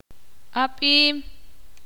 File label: ixil